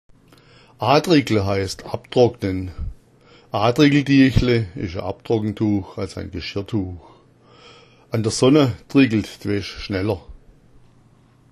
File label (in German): "adriggla", schwaebisch; A'driggla heißt abtrocknen